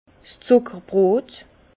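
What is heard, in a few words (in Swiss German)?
"zuckerbrot", Alsace Bas Rhin; vin; brioche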